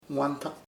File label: fast, chamacoco; rApido